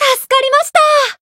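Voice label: Voice healed, Japanese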